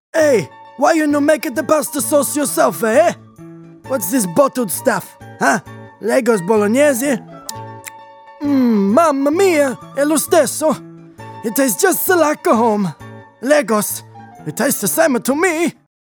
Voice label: male english with italian accent; australian; leggo s commercia

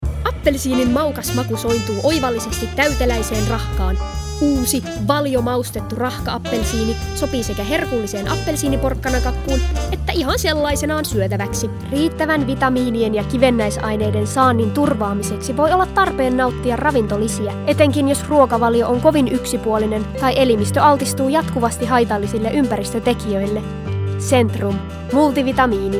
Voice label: female Finnish commercial compilation

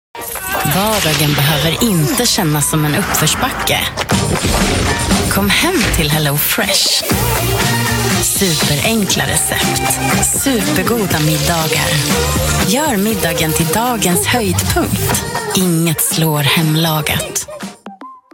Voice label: female swedish commercial; hellofresh

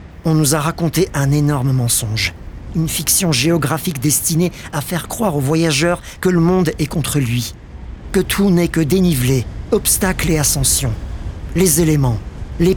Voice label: male French narration; Devine Voices

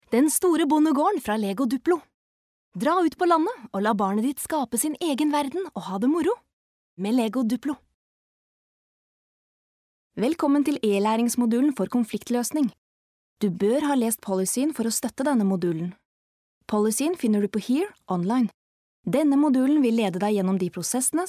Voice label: female Norwegian compilation

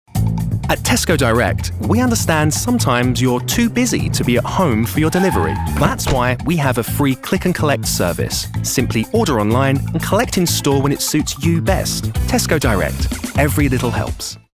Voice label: male english commercial; tesco; southern and northern england

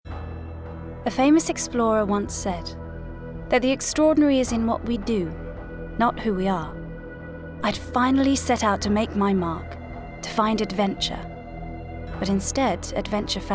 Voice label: female english documentary and narrative; english southern accents; reel

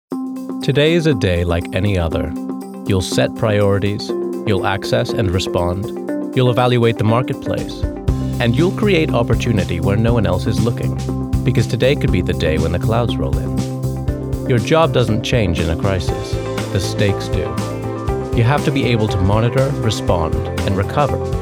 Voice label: male English USA corporate compilation

